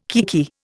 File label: "kiekie", Indian